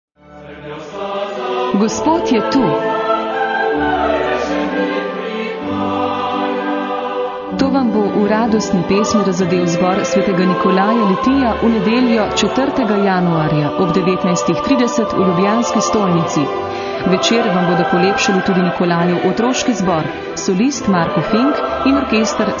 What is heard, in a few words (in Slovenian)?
Objava koncert Stolnica